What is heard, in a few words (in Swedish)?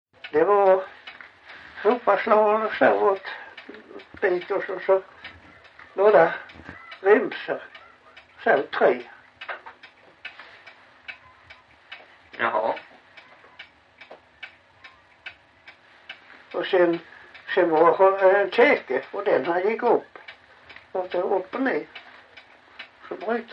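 Backaryd Blekinge, Svenska sagor dialekter; Folkminnen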